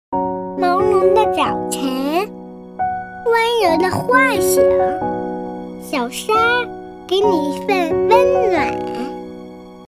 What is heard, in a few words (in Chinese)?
女 动画 童声 四周岁男童广告